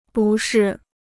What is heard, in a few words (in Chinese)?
"不适", Chinese